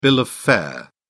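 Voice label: "bill of fare", English, uk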